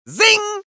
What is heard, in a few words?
"Zing", taunts; Microsoft Studios